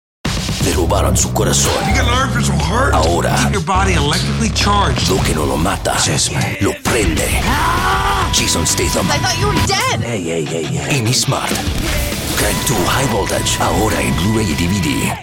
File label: spanish; saw 6